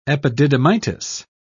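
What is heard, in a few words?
"epididymitis", British English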